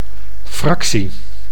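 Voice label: "fractie", Dutch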